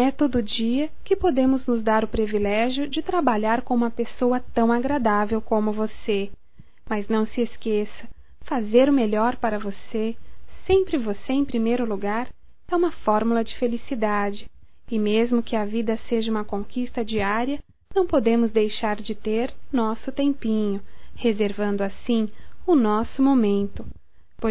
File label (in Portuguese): DIA INTER DA MULHER, fem